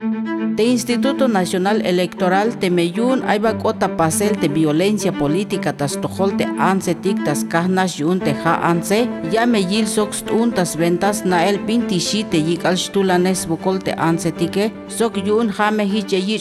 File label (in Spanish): TZELTAL